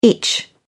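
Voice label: "itch", British English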